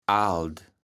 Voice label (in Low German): "aald", kaevels platt